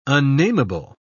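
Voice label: "unnameable", British English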